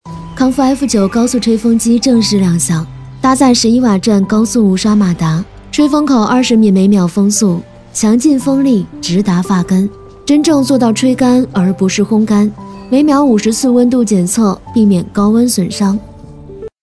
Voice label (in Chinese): 女 产品 电子产品 吹风机; 康夫F9; 年轻舒缓